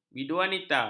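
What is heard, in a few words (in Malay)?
"biduanita", Malay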